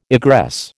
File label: "egress", American